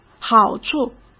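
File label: "好处", Mandarin Chinese; chinese-flashcards; hsk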